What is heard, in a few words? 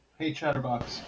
hey chatterbox, en-us